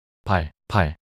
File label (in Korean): 발팔 낱소리 남자